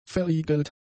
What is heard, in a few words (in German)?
"verriegelt", german; adjectives